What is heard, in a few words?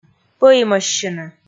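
"пӧимӧссьыны", Komi, female; kpv audio dict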